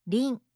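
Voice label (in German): "rin", japanische Mädchennamen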